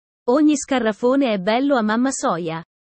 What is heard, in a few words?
italian sayings about life